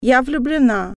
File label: i am in love female, russian